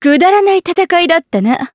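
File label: Vox Victory, voices, Japanese; Nicky; kings raid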